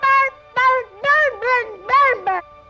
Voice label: gibbrish, voice